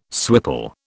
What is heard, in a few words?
"swipple", British